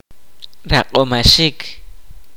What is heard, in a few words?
kaqchikel; To cure